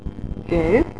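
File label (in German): "gelb", German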